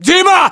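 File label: "dima", battlechatter, Russian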